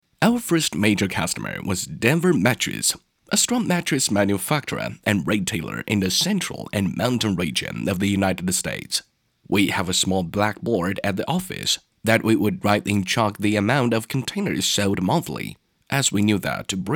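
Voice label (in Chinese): 多角色配音; 麒盛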